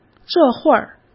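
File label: "这会儿", Mandarin Chinese; hsk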